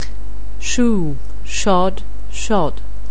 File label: "shoe", anglais